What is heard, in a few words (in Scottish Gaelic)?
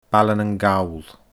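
"Baile nan Gall", Scottish Gaelic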